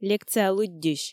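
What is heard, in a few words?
"лекция лыддьысь", Komi, female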